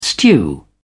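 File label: "stew", British English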